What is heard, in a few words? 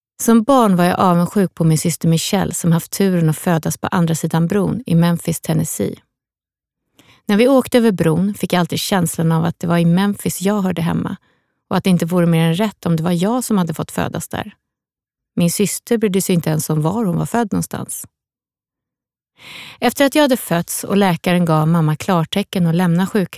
SWE Audiobook f